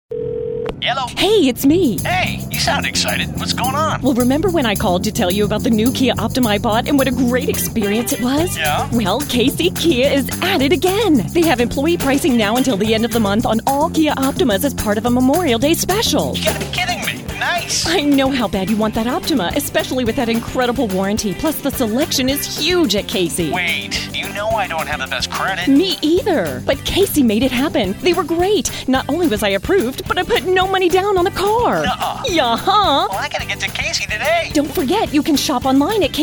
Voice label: Conversational

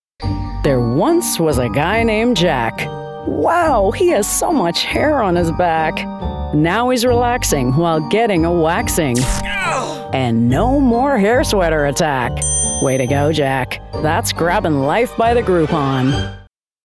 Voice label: female american voice over dry humour; GROUPON

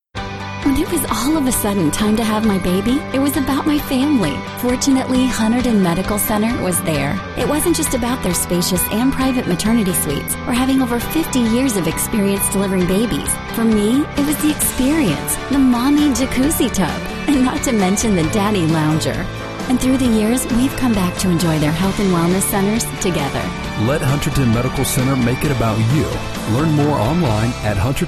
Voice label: Excited Mom

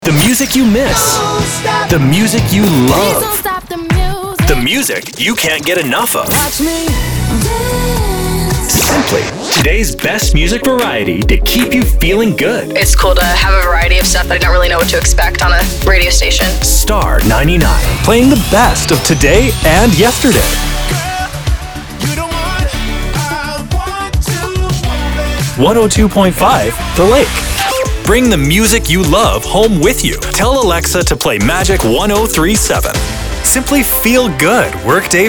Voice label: Commercial, Chicago Voice Over; Extended cut

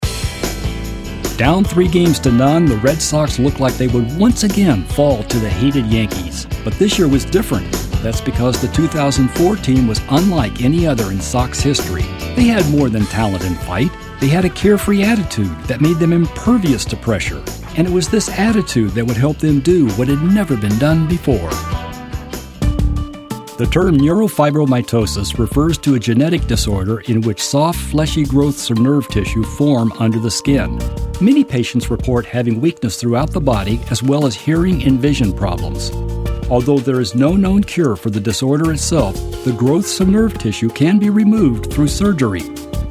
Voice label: Narratives

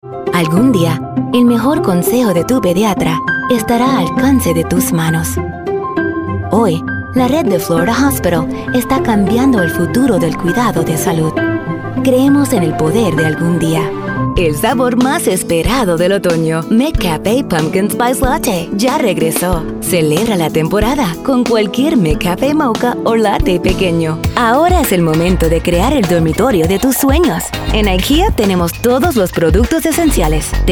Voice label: Spanish Commercial

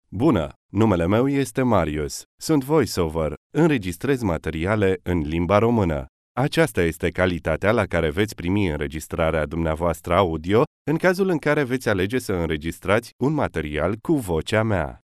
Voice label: studio sample

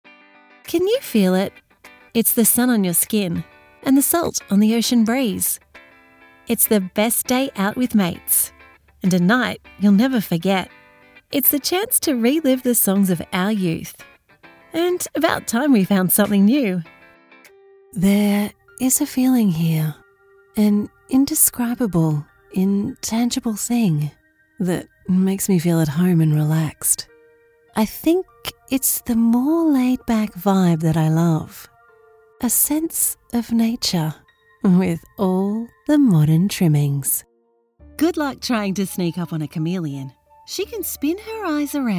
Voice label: conversational voice overs

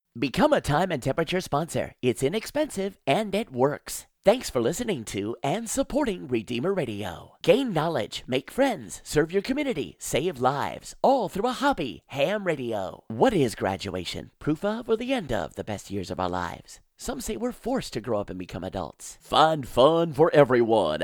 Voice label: Dry VO

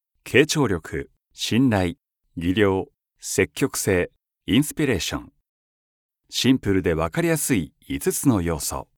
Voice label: corporate presentation

